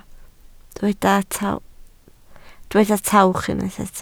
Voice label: "tawch", Welsh